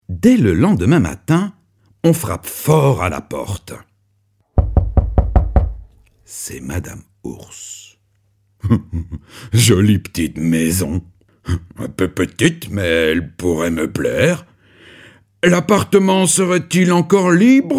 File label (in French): Livre audio CONTE ENFANT; hoerbuch; MmeOURSE